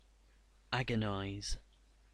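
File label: "agonize", English; pronunciations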